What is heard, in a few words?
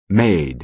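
"made", American English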